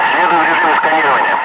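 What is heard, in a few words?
Russian speech, Ka-50 Wingman; DCS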